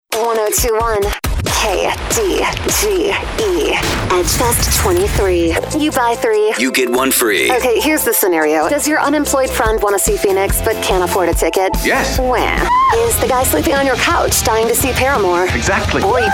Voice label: radio rock, radio imaging